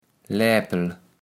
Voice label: "Läpel", kaevels platt

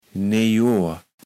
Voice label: "Nejjohr", Platt

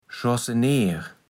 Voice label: "Schorseneer", platt

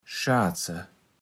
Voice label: "schaatse", Platt

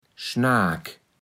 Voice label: "Schnaak", platt

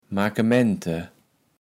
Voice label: "Makemente", kaevels platt

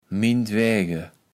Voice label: "mintwäge", kaevels platt

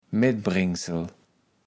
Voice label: "Metbrengsel", kaevels platt

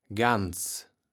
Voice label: "Gans", Platt

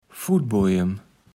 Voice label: "Futtbojem", Platt

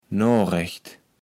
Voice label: "Norecht", Platt

